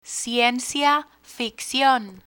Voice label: "ciencia ficcion", Spanish; spanish for kids; learn spanish